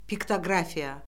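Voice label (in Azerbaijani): "piktoqrafiya", Azerbaijani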